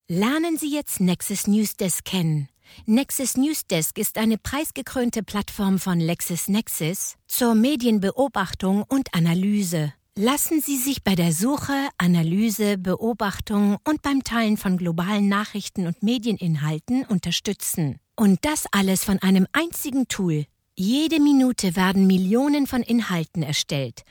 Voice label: German corporate videos

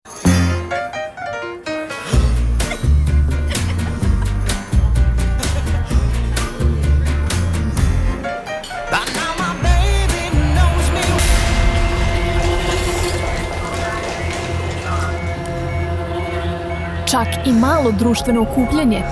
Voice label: serbian

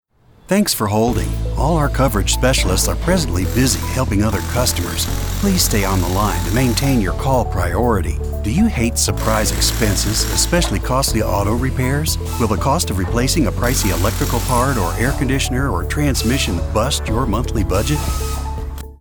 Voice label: english american automotive

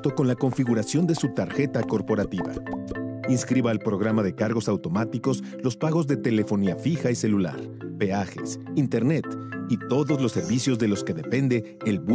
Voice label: Spanish Latin American commercial

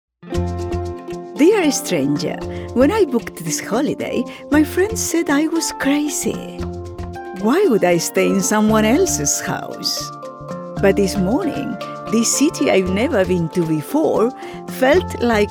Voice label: english british commercial

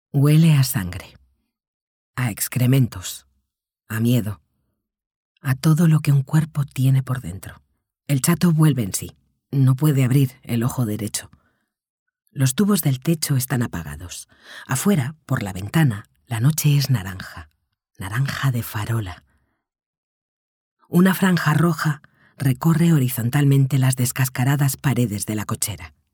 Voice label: spanish audiobooks

